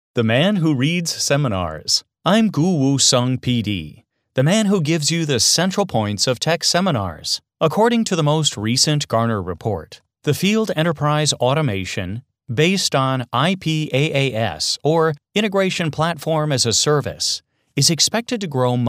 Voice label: english american voiceover locutor norteamericano, American male voice talent